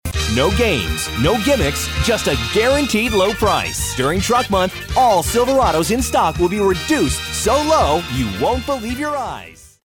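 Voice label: US English, male, commercial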